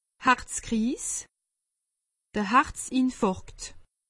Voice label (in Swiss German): "harzkriis", alsace; medecine